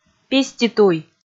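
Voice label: "писти туй", Komi, female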